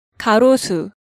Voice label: "Garosu", Korean